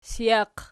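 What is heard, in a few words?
"sijaq" nominative, Archi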